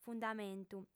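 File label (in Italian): "fundamentu", lingua sarda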